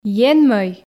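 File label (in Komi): "енмӧй", Komi, female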